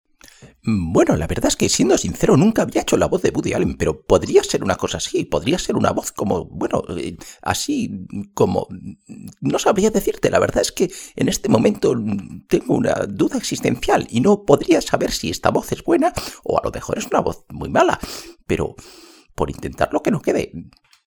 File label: locutor imitador; imitación de la voz en español; imitación de la voz en español de Woody Allen